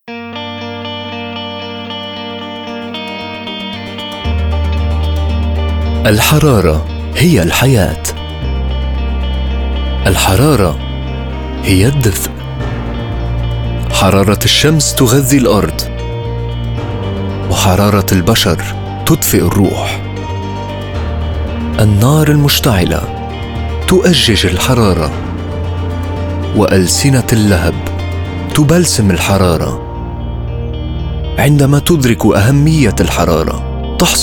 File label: Deep Emotional Narrator; IQOS Manifesto